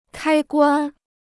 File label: "开关", Chinese